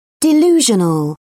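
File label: "delusional", British English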